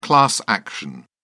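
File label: "class action", British English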